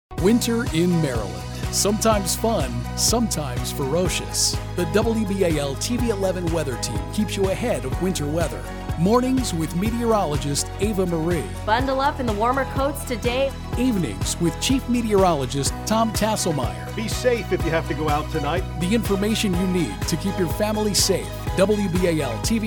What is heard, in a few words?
TV Promo